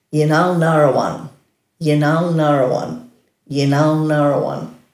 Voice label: "yinal-narawan", Dhurga